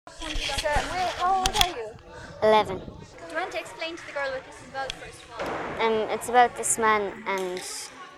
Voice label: Ireland female age11 monologue